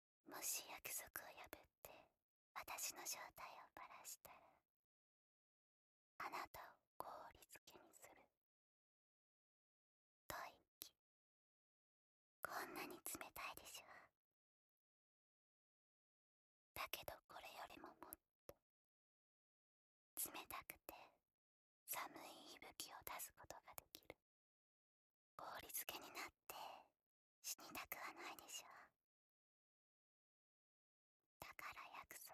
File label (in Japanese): 囁き